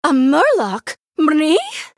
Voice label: female murloc start mirror